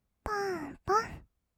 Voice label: "ぽんぽん", kawaii voice; ASMR; 色々なワンショット

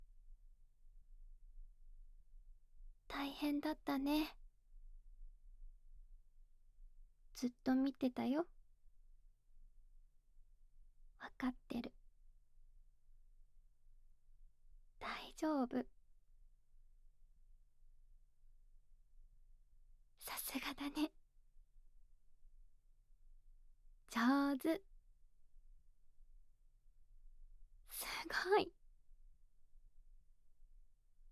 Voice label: 励ましボイス 正面

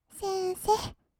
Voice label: 先生, kawaii voice; ASMR; 二人称; 1200 kawaii voice!!

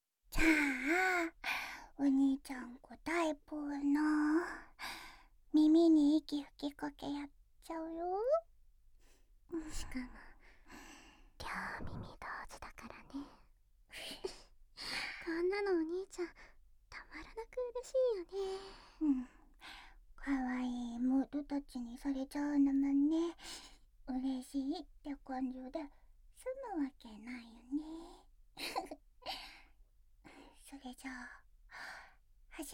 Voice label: 両耳同時に息吹きかけ＆甘い言葉を囁き（両耳）

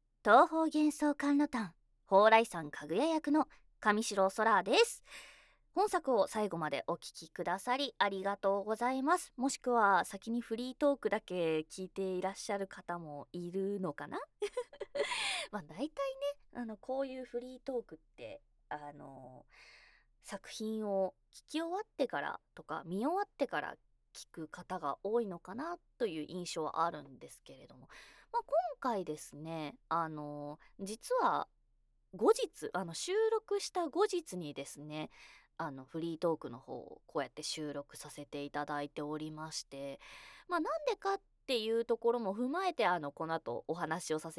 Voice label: フリートーク

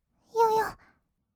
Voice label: "よよっ！", kawaii voice; ASMR; 色々なワンショット

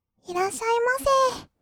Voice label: "いらっしゃいませ", kawaii voice; ASMR; 挨拶; 1200 kawaii voice!!

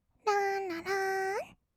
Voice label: "らんららーん", kawaii voice; 1200 kawaii voice!!; 色々なワンショット

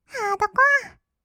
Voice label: ハードコア, kawaii voice; ASMR; 音楽ジャンル

